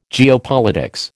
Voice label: "geopolitics", American